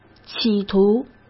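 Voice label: "企图", Mandarin Chinese; chinese-flashcards; hsk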